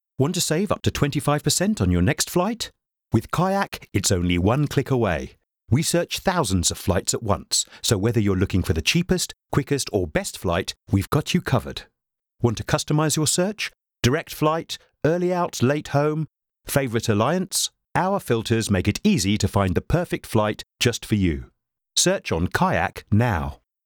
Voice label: Voiceover for YouTube videos; Voiceover for KAYAK YouTube videos